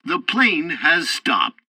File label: landing completed, english; War Thunder